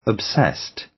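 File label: "obsessed", British English; Time, history; Thời gian, lịch sử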